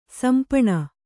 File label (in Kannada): "ಸಿಂಪಣಿ", Kannada